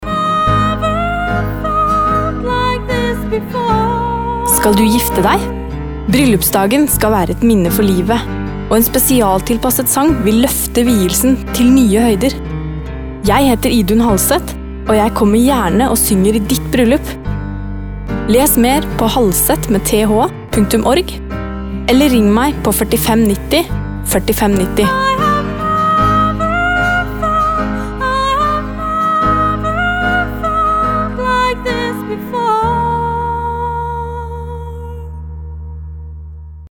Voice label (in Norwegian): Reklame